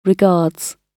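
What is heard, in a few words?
"regards", British English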